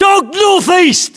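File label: UK threat dog north east, battlechatter, english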